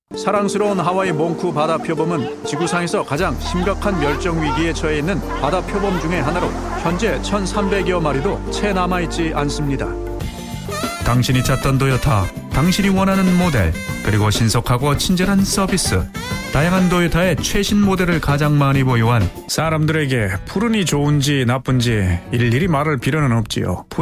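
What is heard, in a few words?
Korean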